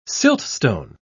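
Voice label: "siltstone", British English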